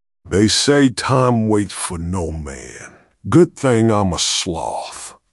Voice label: upset; fertig classic games